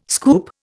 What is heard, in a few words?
"scoop", Indian